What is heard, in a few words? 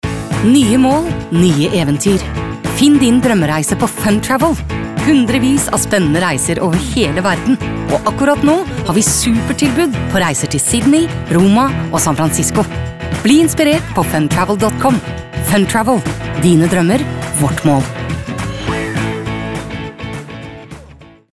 no upbeat, norsk kvinne